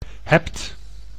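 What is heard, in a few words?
"hebt", Dutch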